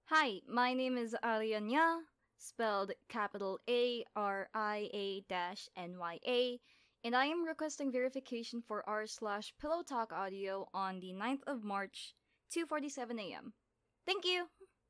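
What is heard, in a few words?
Female Verification Request; Pillow Talk Audio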